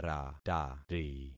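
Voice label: "ratari", concatenated syllables; Affixing experiment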